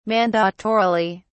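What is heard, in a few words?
"mandatorily", British English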